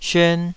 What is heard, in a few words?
"syuan2", Taiwanese